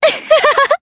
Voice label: Happy, Jp; Talisha; kings raid